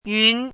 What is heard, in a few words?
"yun2", Chinese; chinese-voice